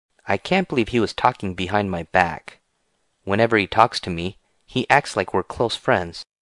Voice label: Angry